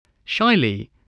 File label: "shyly", spelling